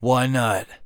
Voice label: Acknowledge, Human Male; zps; HumanMale-01